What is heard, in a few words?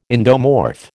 "endomorph", American